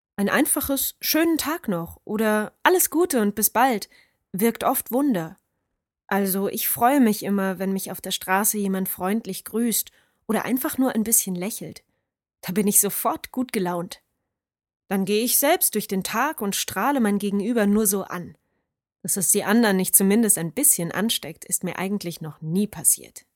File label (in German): Audio freundlich